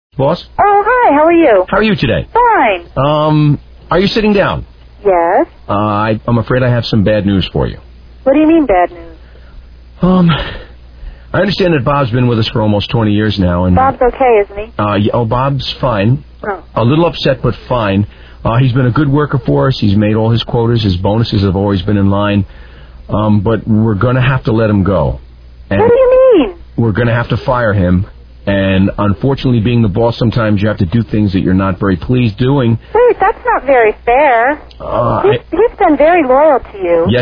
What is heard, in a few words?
Phone Call